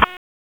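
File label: Raleigh Police Northeast District Tactical; wake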